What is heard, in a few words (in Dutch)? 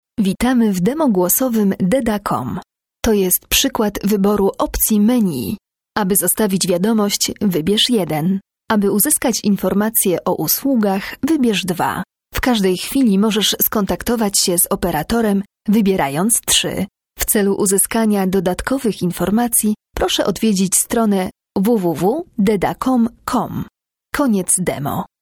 Voice over Pools vrouw